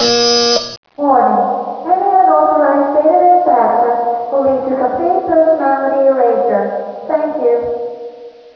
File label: announce